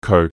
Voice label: "koe4" Yue